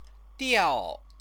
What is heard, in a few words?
"diao", Chinese; Chinese lessons